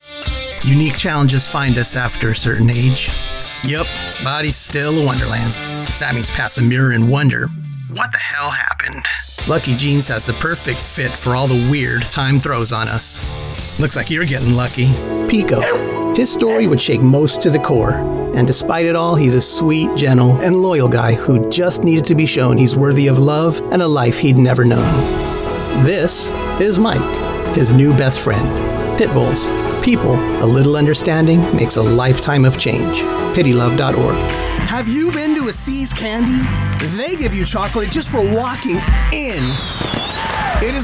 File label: Commercial; male voice actor